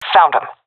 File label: sees enemy, vo fem; agents; Source Model Packs; Counter Strike Model Pack; CS2